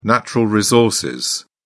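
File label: "natural resources", British English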